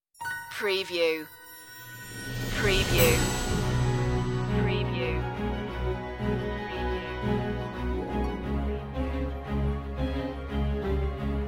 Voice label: news voiceover loop

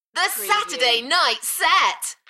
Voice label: UK female, The saturday night set, Enthusiastic; Shows & Events; beanstalk audio

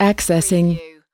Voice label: "accessing", US female; lynne publishing

